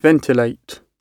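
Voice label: "ventilate", British English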